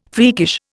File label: "freakish", Indian